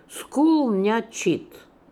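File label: "Skoolniatchit", pronunciations; Fourth Way; Beelzebub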